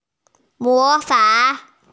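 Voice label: "mo fang", Mandarin Chinese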